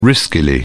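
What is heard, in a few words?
"riskily", British English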